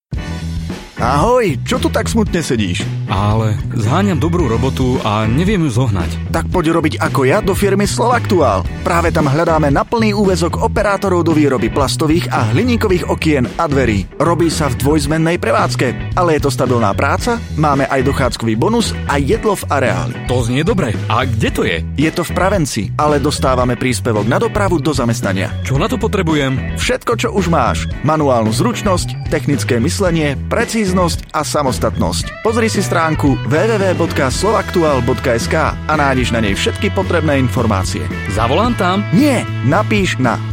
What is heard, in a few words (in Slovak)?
reklamny spot